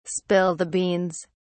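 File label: "spill the beans", British English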